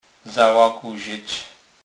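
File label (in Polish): "zalaguzec", Kurpie dialect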